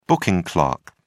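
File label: "booking clerk", British English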